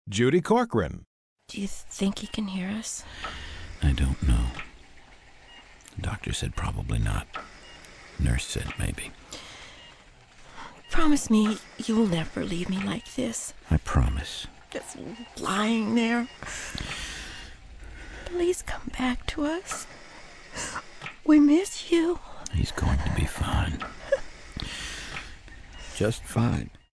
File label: CRYING